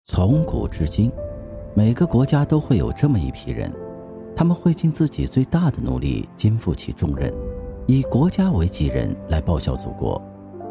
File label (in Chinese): 神秘代入